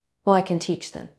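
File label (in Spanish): Voice Acting es F, Spanish, female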